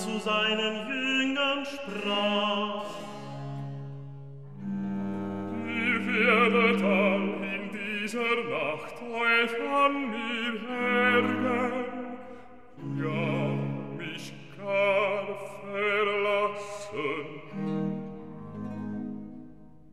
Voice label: Recitativo evangelist Jesus; Handel Masterworks